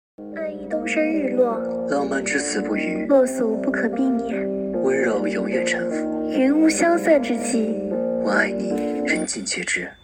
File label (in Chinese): 温柔甜美对话